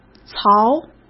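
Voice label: "槽", Mandarin Chinese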